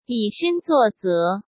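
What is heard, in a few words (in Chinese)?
"以身作则", Mandarin Chinese; hsk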